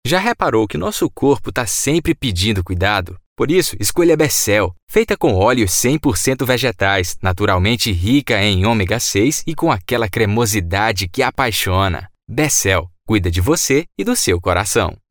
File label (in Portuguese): OFF Sotaque Ceará Maranhão Piauí e Bahia